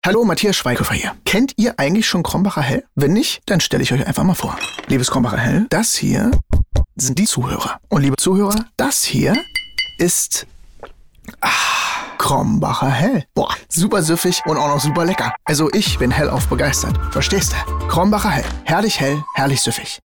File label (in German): Funkspot; Krombacher Hell; Seilers Werbeblog